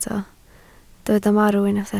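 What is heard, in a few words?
"marw" Welsh, spoken word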